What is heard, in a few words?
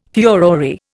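"furore", Indian English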